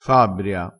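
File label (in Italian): "fabbria", vocabolario dialettale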